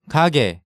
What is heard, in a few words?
"Gage", Hangeul sounds; DigitalSoju